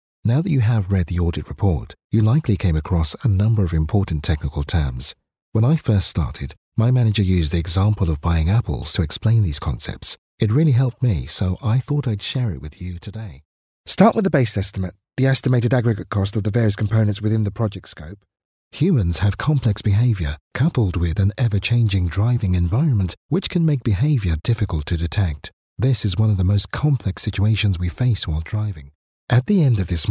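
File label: british african e-learning, male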